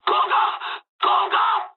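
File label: bailout mood med, speech japanese; War Thunder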